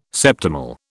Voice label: "septimal", British

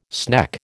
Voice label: "sneck", American

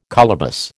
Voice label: "colobus", American

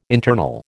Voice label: "internal", American

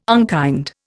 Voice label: "unkind", Indian

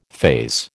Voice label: "phase", American

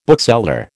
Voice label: "bookseller", American

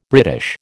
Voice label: "British", American

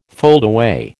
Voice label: "foldaway", American

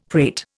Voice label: "prate", Indian; Dictionary

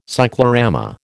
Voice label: "cyclorama", American

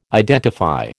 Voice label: "identify", American

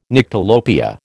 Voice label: "Nyctalopia", American

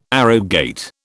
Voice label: "arrogate", British

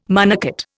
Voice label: "monocot", Indian

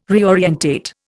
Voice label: "reorientate", Indian

